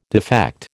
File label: "defect", American